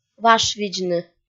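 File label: "вашвидзны", Komi, female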